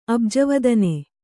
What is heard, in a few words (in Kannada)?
"ಅಬ್ಜವದನೆ", Kannada